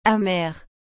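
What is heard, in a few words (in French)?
"amère", French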